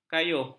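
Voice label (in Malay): "kayuh", Malay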